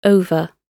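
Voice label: "over", British English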